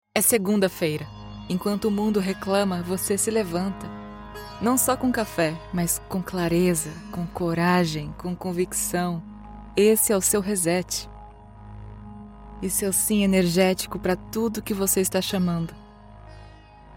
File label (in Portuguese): Meditation Monday Motivation Uplifting Brazilian Portuguese